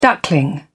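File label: "duckling", British English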